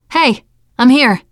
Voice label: scenario join, teen girl